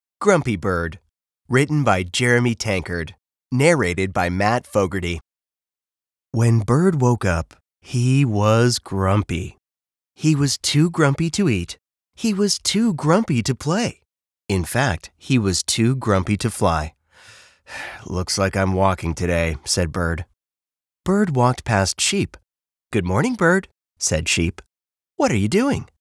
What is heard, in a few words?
men audiobooks